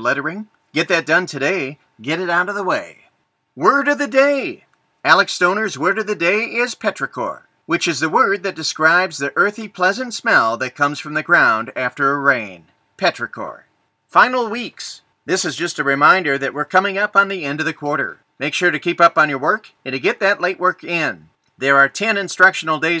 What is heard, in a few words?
Announcements